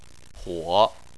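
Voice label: "huo", Chinese, third tone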